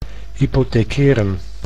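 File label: "hypothekeren", Dutch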